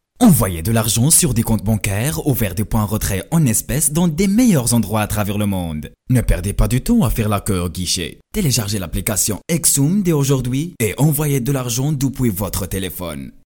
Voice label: locutor frances marruecos french moroccan voiceover; locutor francés de Marruecos